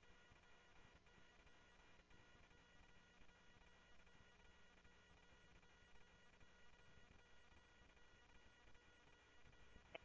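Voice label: Lakes Sheriff Lake Patrol, scanner audio trunk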